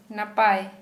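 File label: Santali, female, good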